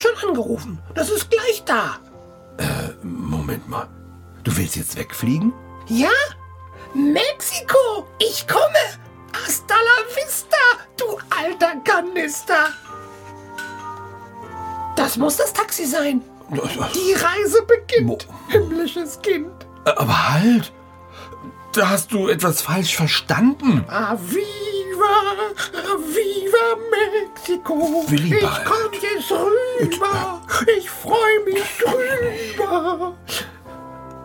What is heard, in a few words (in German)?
Hoerspiel